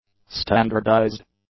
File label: "standardized", English; adjectives